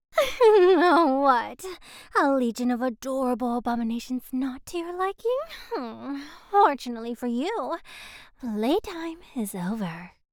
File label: Character Sample Mischievous Sly